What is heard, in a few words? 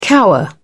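"cower", British English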